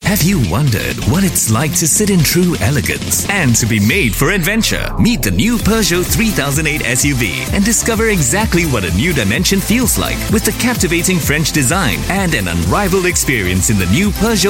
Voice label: Asian English Radio; Peugeot 30s